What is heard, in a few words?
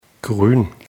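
"grün", German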